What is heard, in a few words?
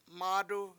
"mAAdu7", washo; his hand